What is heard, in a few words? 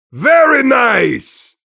mobster positive vocalization